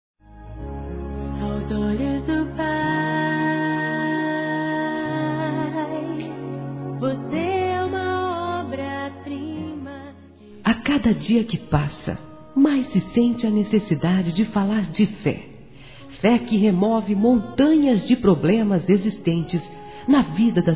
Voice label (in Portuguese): Aniversário Neutro Evangelico Feminino, Telemensagens; Aniversários